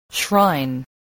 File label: "shrine", spelling